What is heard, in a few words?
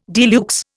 "de luxe", Indian